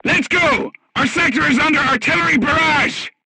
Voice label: voice message art shooting warning, crew tanks vietnamese